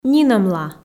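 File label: "нинӧмла", Komi, female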